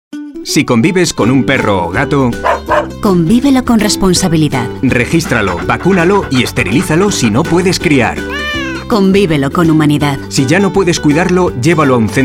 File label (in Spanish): radio generico